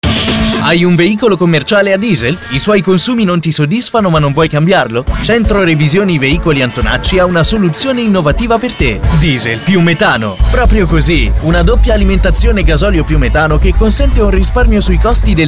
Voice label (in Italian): energetico middle age; centro revisioni antonacci